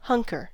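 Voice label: "hunker", US English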